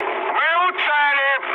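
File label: near waypoint, mood med, Russian; War Thunder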